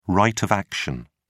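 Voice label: "right of action", British English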